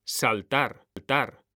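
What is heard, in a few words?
"saltar", Spanish, male; mekanta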